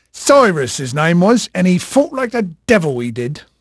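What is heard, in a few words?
English male, voice; Pirates Of The Caribbean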